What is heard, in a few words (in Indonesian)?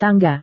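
"tangga", Indonesian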